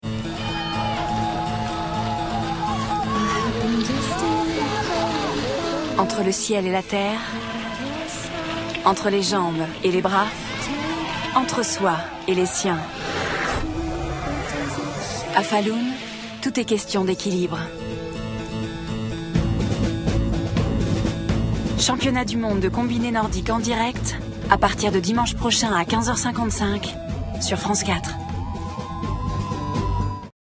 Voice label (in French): voix off; France4